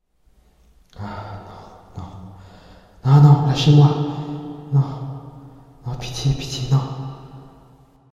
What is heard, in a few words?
voice pity